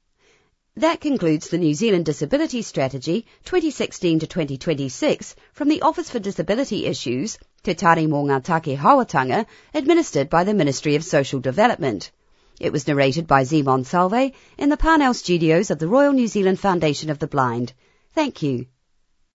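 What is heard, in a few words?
closing announcement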